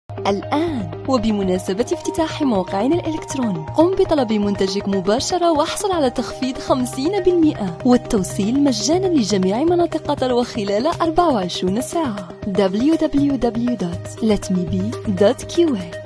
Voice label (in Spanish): corporativo